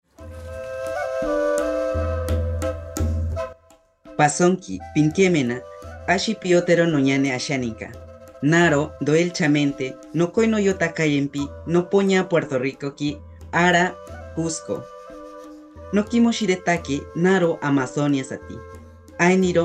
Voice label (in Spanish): LENGUA ASHANINKA